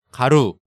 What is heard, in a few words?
"Garu", Korean